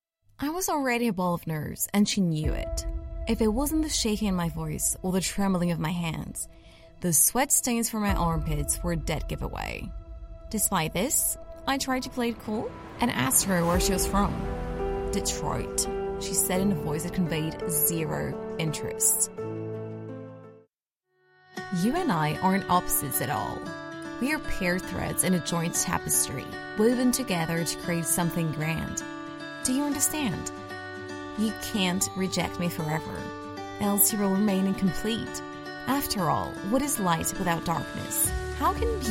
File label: eng american accent